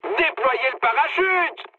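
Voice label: French bailout mood high; War Thunder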